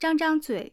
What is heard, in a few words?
open mouth